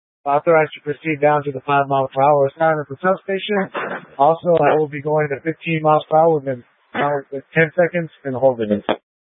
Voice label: Light Rail Emergency, scanner

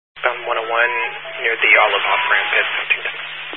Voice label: Highway Patrol, Phoenix Metro West Area, scanner

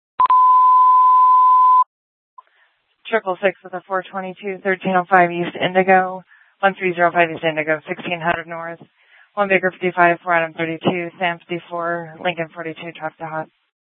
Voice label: Dispatch, scanner; TOPAZ Regional Wireless Cooperative (TRWC) - Mesa Simulcast; Mesa PD; 4 Superstition Dispatch

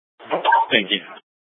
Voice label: Light Rail Ops, scanner; Light Rail Ops 1

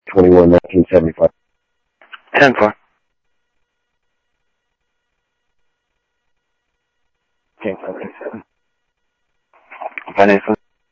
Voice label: scanner audio, Sheriff

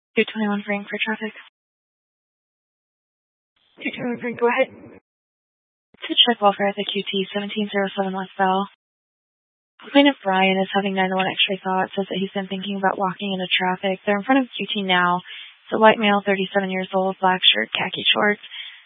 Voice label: Phoenix Police Dispatch; Regional Wireless Cooperative (RWC) - Thompson Peak High; 200 Black Mountain Precinct

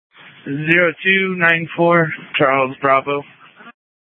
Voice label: Sheriff, scanner P25

